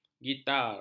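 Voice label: "gitar", Malay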